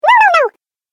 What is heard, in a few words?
"No No No", Cartoon Game Voice; Cartoon Voice Sound Effects